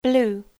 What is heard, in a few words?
"blue", English